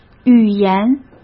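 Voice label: "语言", Mandarin Chinese; chinese-flashcards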